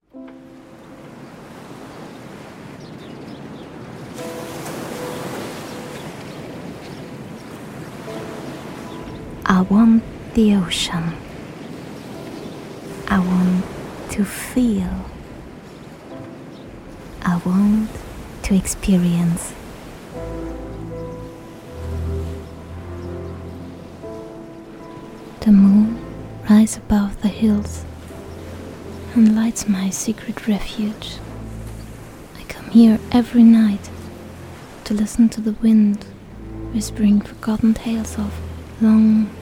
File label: english sample